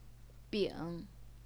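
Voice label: "bing", Mandarin Chinese, third tone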